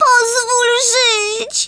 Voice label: GO AWAY, Speech, Polish; Worms Armageddon